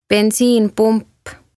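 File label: "bensiinipump", Estonian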